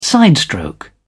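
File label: "sidestroke", British English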